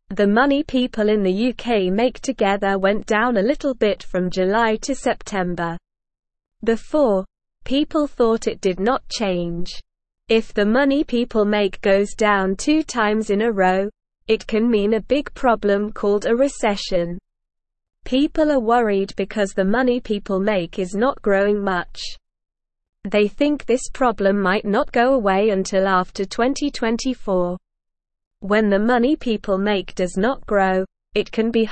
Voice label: Beginner SLOW Reading, English; English Newsroom

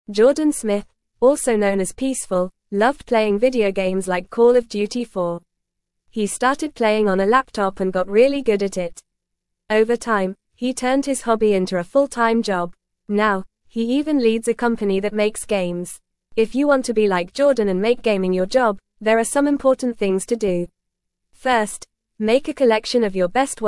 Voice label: English Lower Intermediate FAST Reading; Playing Games Can Be a Job

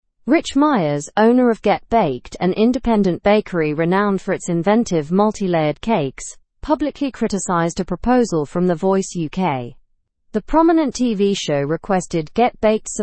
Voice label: learn english through news advanced; small bakery challenges the voice over freebie culture

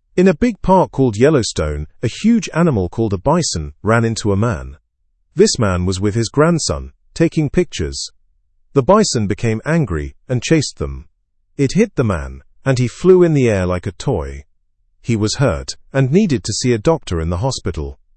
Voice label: english news beginner fast; bison hits man in yellowstone

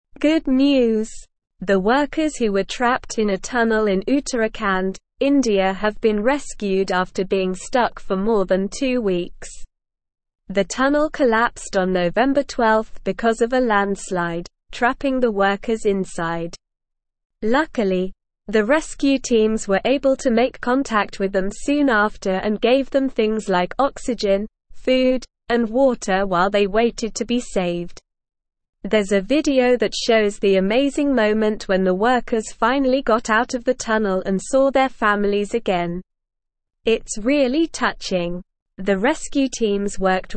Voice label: Upper Intermediate SLOW Reading, English; English Newsroom

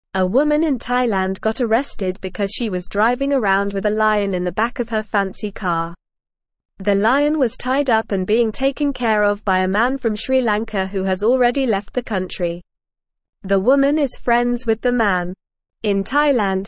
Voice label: English Upper Intermediate NORMAL Reading; English Newsroom; Woman Arrested After Viral Video of Lion in Bentl

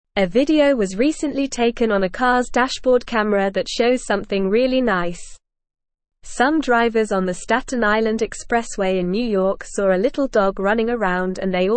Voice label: English Upper Intermediate NORMAL Reading; English Newsroom; Motorists Rescue Runaway Chihuahua on Staten Isla

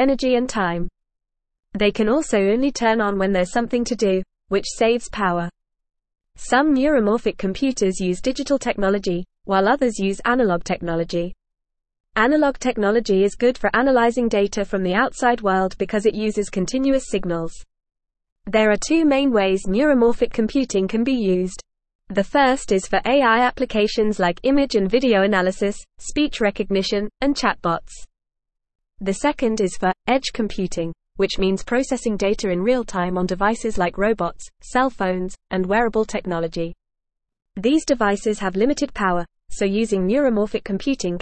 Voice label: English FAST Reading; The Rise of Neuromorphic Computing Energy Efficient

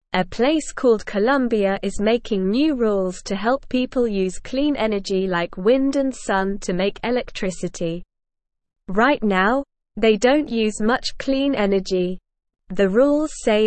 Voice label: English Beginner SLOW Reading; English Newsroom

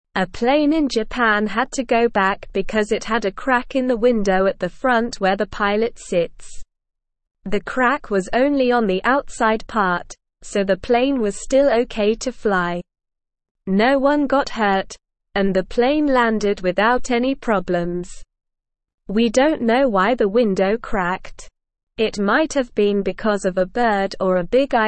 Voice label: English Beginner SLOW Reading; English Newsroom

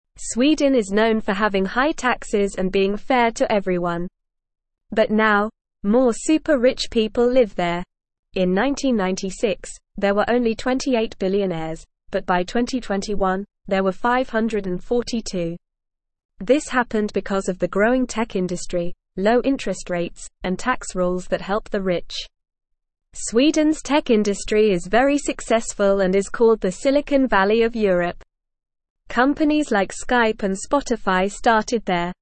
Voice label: English NORMAL Reading; More Rich People in Sweden Why and How

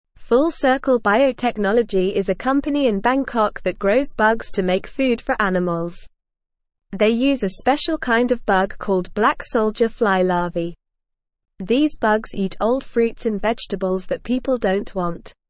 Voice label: English NORMAL Reading; Bug Farming Growing Food for Animals with Bugs

